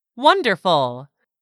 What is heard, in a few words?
"wonderful", English; 3000 words